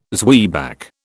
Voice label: "zwieback", British